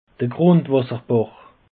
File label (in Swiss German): "grundwasserbach", alsace; peche